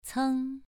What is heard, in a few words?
"ceng2", pronunciation